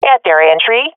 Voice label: at enemy spawn, swat fem; vo; Counter Strike Model Pack; CS:GO

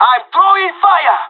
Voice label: molotov, pirate; Counter Strike Model Pack; CS:GO

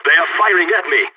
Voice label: radio taking fire, balkan; vo

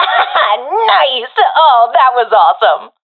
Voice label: round winning, professional fem; Counter Strike Model Pack; CS:GO

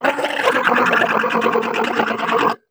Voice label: ballbot pain turret; player; Portal 2

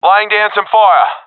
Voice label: throwing molotov, professional epic; vo; Source Model Packs; Counter Strike Model Pack; CS2

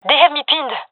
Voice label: pinned, gendarmerie fem epic; vo; agents

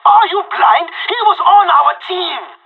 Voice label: killed friend, pirate; Counter Strike Model Pack; CS:GO

